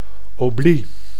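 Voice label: "oblie", Dutch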